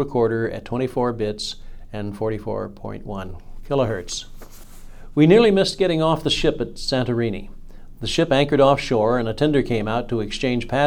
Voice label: Sanken COS11 left AT899 right male, microphones